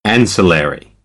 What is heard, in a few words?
"ancillary", American; English; ɛ - ə